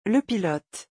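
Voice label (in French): "le pilote", French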